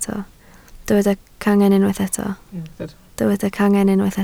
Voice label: "cangen", Welsh

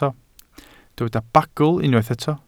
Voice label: "bagl", Welsh

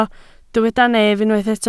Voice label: "nef", Welsh

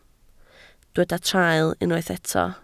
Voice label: "traul", Welsh

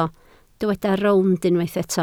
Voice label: "rownd", Welsh

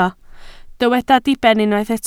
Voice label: "diben" Welsh

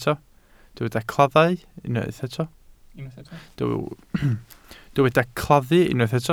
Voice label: "claddu", Welsh

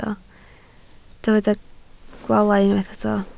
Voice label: "gwaalau", Welsh

